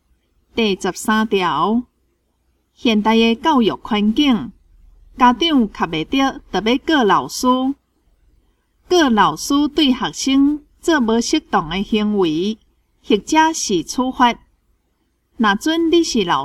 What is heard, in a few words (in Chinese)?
口語表達, 閩南語; 閩南語語言能力認證; 金安咱來考C